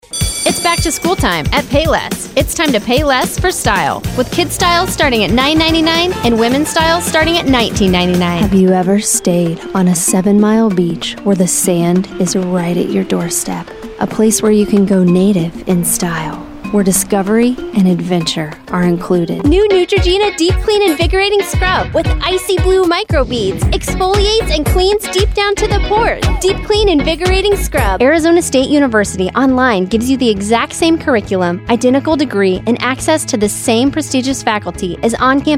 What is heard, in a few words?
Commercial